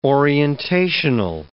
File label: "orientational", English